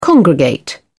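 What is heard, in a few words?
"congregate", British English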